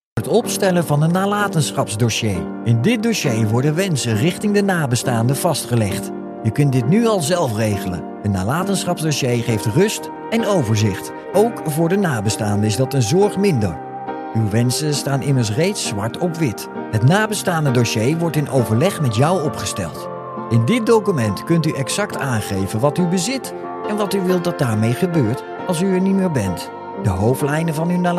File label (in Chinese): 荷兰语男声